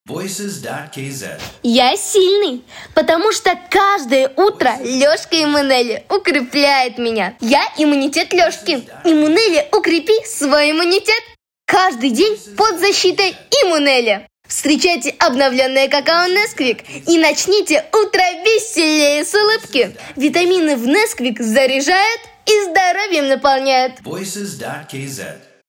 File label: kid ru